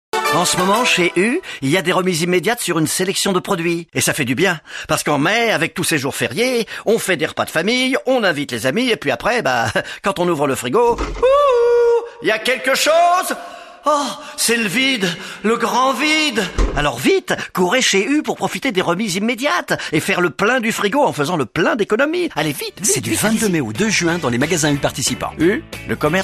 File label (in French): radio pub spot